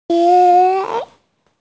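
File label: "xie2", Mandarin Chinese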